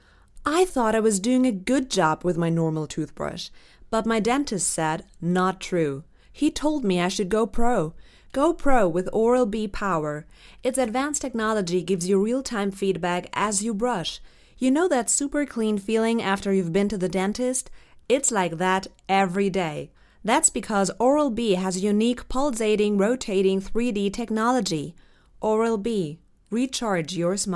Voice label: US COMMERCIAL; ORAL B